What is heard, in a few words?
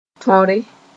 "tõre", Mooré